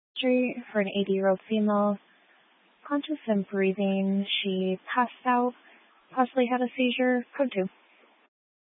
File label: Grand Junction Fire Department Dispatch